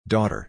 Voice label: "daughter", us